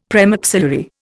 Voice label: "premaxillary", Indian; Dictionary

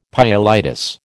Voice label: "pyelitis", American

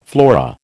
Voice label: "flora", American

